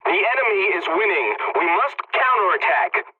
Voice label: pilot tickets lead continue enemy, english; War Thunder